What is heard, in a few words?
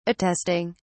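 "attesting", English, British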